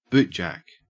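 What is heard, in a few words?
"bootjack", Australian English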